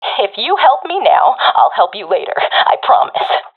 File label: request help, professional fem; vo; Counter Strike Model Pack; CS:GO